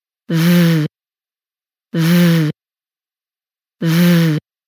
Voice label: Listen to the Th Sounds Voiced